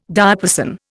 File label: "diapason", Indian